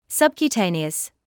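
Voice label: "subcutaneous", British English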